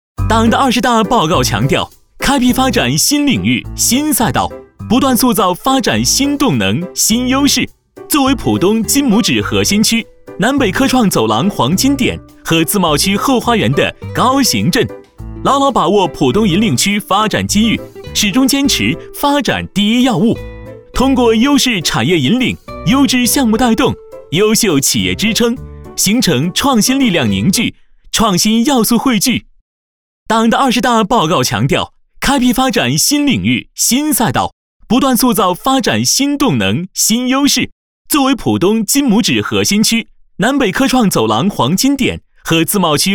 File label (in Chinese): 男; 飞碟说MG; 八景